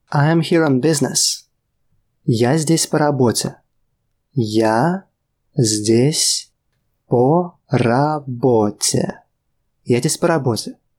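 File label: Russian I am here on business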